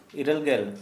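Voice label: Santali, male, eighty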